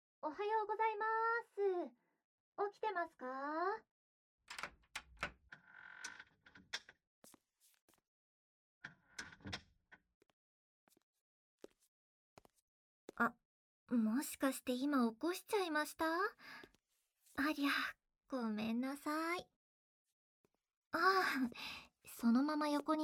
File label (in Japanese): 癒し系シスターのあまあま添い寝(添い寝・耳ふー・寝息); SE有りver